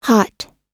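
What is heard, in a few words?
"hot", US English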